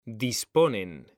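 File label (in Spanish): "disponen", Spanish; mekanta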